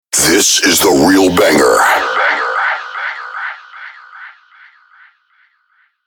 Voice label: sweeper this is the real banger